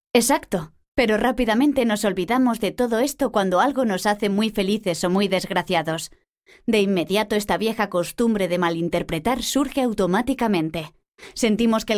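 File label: Spanish Spain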